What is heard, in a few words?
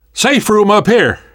world hospital, namvet, survivor voice